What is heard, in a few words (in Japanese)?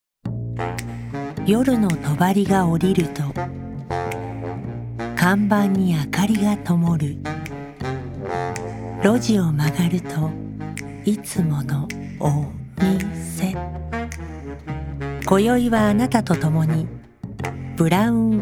ウイスキー CM